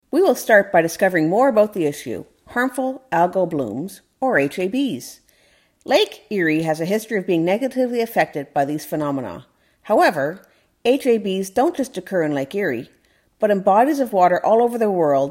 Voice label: narration intro